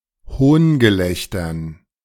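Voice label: "Hohngelächtern", German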